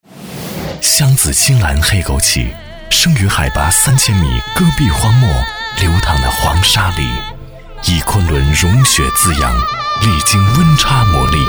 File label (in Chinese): 广告 送礼篇; 湘紫青蓝